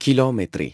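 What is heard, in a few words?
"kilometers", English; iOS 4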